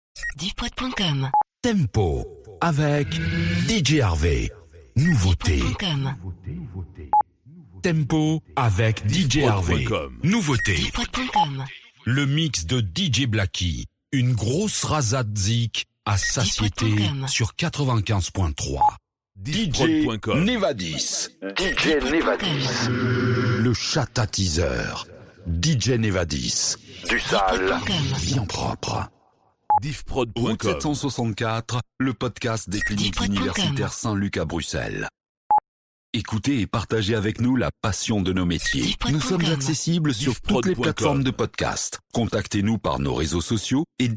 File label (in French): Drops A cappellas, habillage